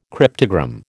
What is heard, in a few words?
"cryptogram", American